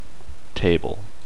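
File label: "table", male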